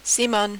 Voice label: "Simone", French